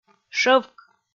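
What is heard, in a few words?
"шӧвк", Komi, female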